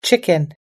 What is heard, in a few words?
"chicken", us-english